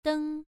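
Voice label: "deng" Mandarin, first tone, pronunciation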